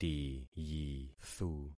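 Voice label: "tiyithu", concatenated syllables; Affixing experiment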